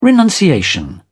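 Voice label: "renunciation", English, British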